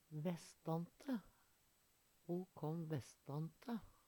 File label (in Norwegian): "vestante", Numedalsmål; vestanfrå; Ho kåm vestante.; Ordklasse: Preposisjon